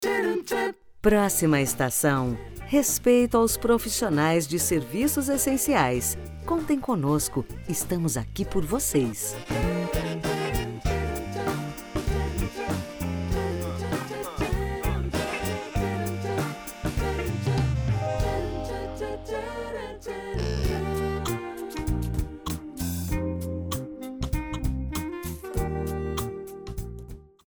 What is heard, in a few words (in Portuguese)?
voz da marca; viaquatro